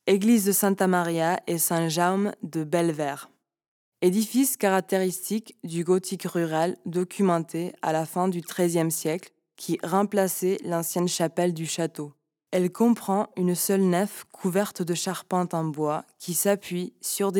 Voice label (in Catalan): locucio frances; sant jaume bellver